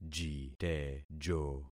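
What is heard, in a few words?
"jitejo", concatenated syllables; Affixing experiment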